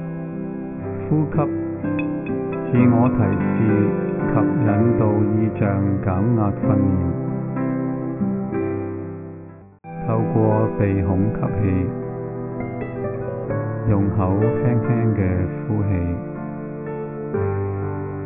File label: Sound Relaxation with vocal induction